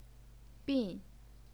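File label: "bin", Mandarin Chinese, fourth tone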